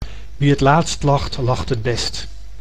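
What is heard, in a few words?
"wie het laatst lacht, lacht het best", Dutch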